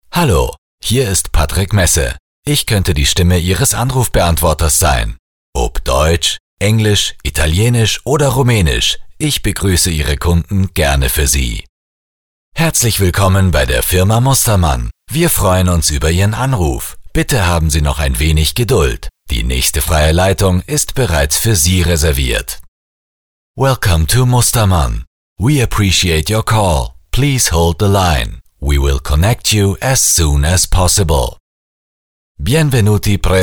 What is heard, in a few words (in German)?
Sprecher Telefonansagen